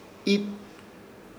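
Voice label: "Iit", Chamacoco word, hermano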